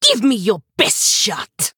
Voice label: Female Troll